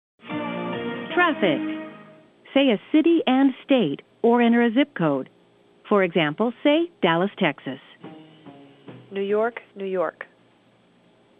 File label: narration